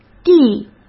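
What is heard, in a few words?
"递" Mandarin Chinese; hsk; chinese flashcards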